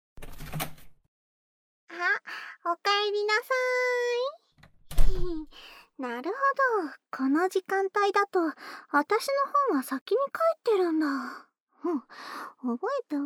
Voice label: 右耳の耳かき, ASMR; こんなことでいいの？ 簡単簡単♪

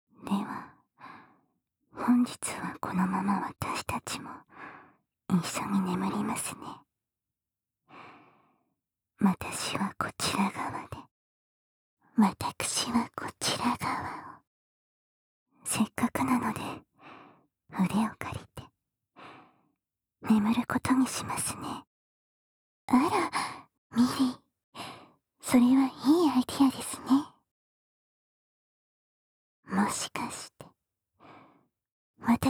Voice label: 添い寝, ASMR; ムラムラ熟成精液メイドの添い寝

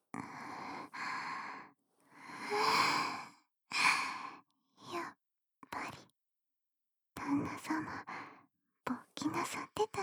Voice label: 吐息混じりの囁き; 服の上から手コキ（両耳）

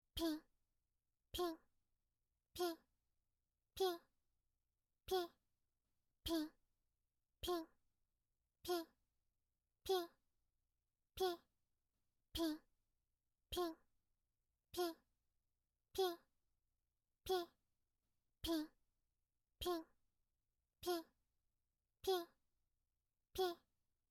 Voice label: ぴんぴんボイス, 汎用エロボイス; おまけ音声（汎用指示ボイス・汎用エロボイス）

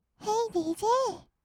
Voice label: ヘイDJ, kawaii voice; 音ゲー

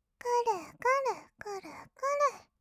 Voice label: くるくる, kawaii voice; ASMR; 合いの手; 1200 kawaii voice!!

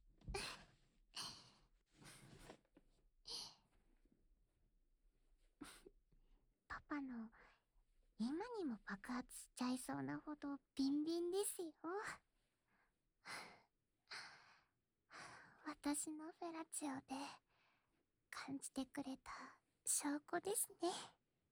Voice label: 手コキ と 囁き （右耳）

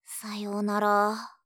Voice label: 「さようなら」(哀), あいさつ系, ASMR

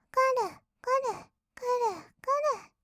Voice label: くるくる, kawaii voice, 合いの手; 1200 kawaii voice!!; ASMR

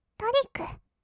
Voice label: "トリック", kawaii voice; ASMR; 1200 kawaii voice!!; 音ゲー

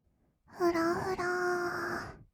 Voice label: "ふらふら～", kawaii voice; ASMR; 色々なワンショット

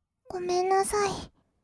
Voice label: "ごめんなさい", kawaii voice; ASMR; 挨拶; 1200 kawaii voice!!

